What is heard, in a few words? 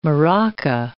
"maraca", English